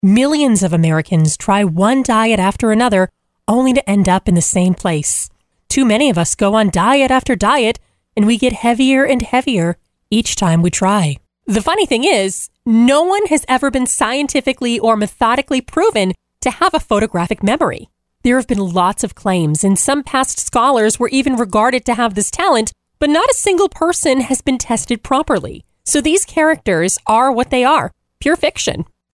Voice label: 30s Female Narration